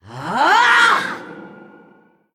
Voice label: Female Naga Elemental Attack